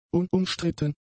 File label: "unumstritten", German; adjectives